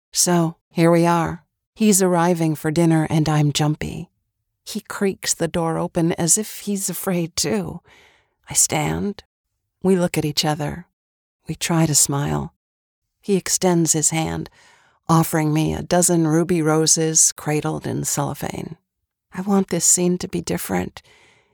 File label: Audio Drama